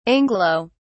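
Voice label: "anglo-", British English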